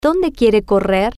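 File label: "Donde Quiere Correr", Spanish, female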